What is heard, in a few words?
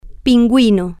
"pinguino", Spanish